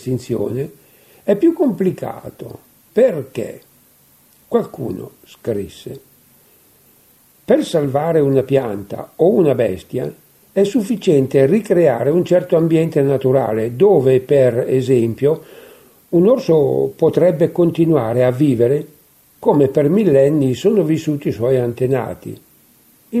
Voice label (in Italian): dialetto